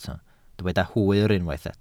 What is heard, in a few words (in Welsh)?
"hwyr", Welsh